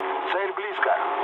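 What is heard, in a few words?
near waypoint, mood low, Russian; War Thunder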